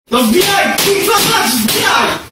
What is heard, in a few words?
angry polish kid